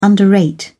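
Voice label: "underrate", English, British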